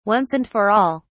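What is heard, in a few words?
"once and for all", English, British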